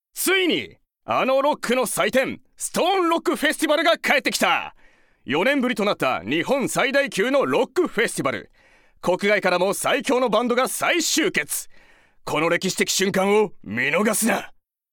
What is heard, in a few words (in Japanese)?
ナレーション